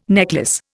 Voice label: "necklace", Indian